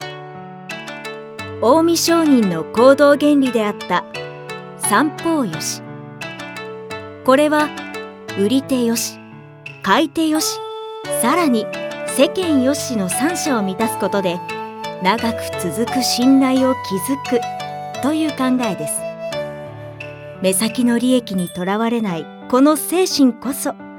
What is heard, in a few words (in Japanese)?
落ち着き, ナレーション_落ち着き